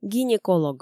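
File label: "гинеколог", Komi, female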